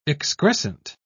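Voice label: "excrescent", British English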